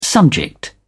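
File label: "subject", British English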